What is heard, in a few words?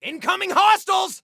Warn Enemies, Soldier Male